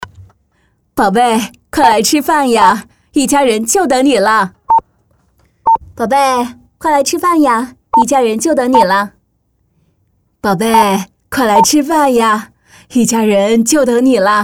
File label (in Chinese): 角色妈妈